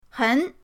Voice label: "hen2", pinyin